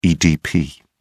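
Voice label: "edp", British English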